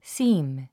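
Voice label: "seam", English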